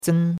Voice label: "zen1", chinois; Cours-de-Chinois